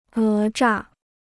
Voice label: "讹诈", Chinese

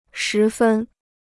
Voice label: "十分", Chinese

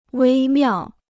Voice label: "微妙", Chinese

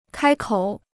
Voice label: "开口", Chinese

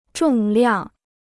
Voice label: "重量", Chinese

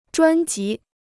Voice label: "专辑", Chinese

